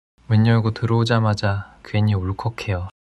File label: sadness